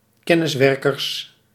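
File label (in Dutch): "kenniswerkers", Dutch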